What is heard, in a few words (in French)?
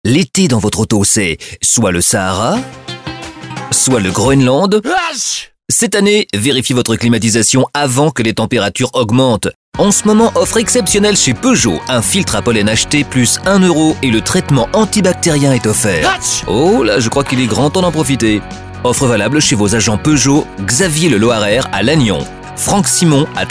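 spot, spots radio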